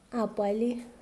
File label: to nurse, Mokilese